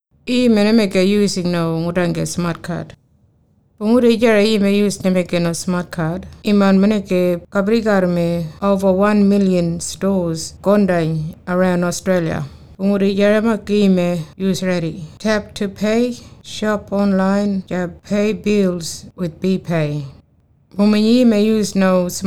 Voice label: kunwinjku; using your smartcard

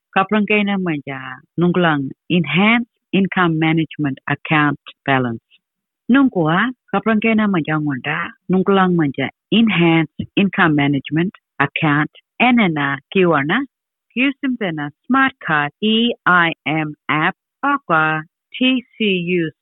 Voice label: Anindilyakwa; Checking EIM Account Balance

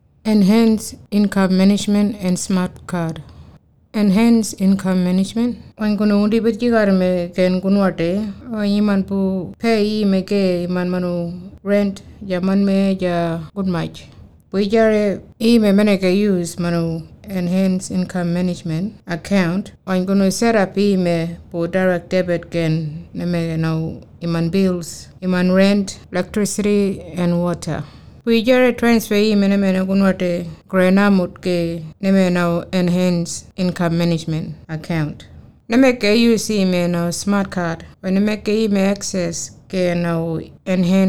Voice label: kunwinjku; eim and smartcard

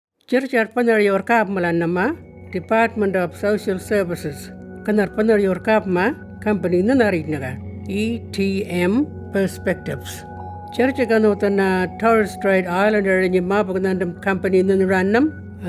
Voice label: Arrernte Central East; Income Management Consult

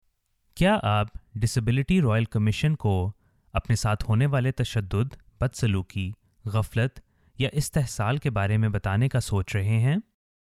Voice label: urdu; dss disability

